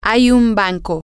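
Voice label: there is a bank, Spanish, female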